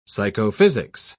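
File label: "psychophysics", British English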